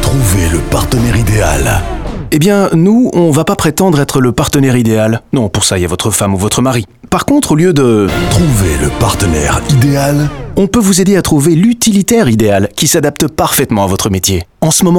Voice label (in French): radio fr spot; vw cvi indep; 2caddyvan; ddb joue avec les cliches pour les utilitaires volkswagen